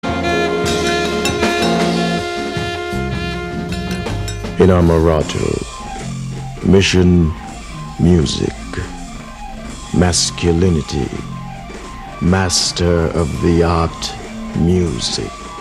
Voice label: narration